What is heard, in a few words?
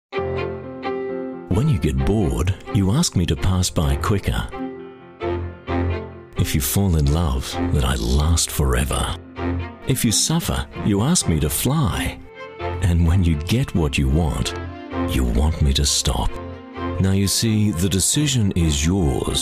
Television